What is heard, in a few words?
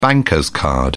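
"bank card", British English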